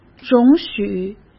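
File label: "容许", Mandarin Chinese; chinese flashcards; hsk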